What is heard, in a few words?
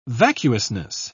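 "vacuousness", British English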